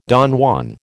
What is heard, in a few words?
"Don Juan", American